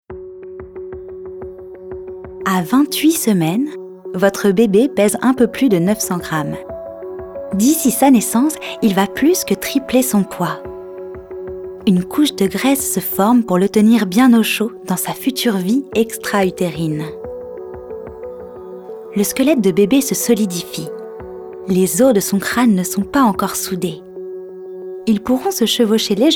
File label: Docu; Appli Baby Center